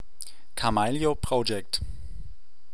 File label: kamailio project, male; kamailio pronounciation